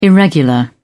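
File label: "irregular", British English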